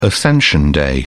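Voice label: "ascension-day", British English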